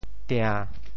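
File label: "tia~7", Teochew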